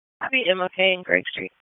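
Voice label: Police Southeast District Dispatch, P25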